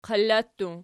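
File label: "qalla" plural, Archi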